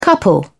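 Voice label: "couple", British English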